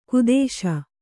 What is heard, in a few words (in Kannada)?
"ಖೇದಿಸು", kannada